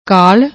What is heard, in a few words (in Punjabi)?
"kall", Punjabi; ਕਾਲ਼